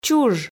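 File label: "чуж", Komi, female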